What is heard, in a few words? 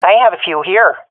sees multiple enemies, swat fem; vo; agents; Source Model Packs; Counter Strike Model Pack; CS2